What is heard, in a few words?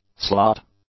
"slot", english; nouns